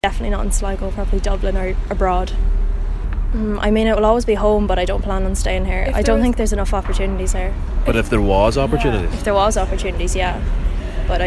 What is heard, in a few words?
student vox